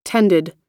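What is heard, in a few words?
"tended", US English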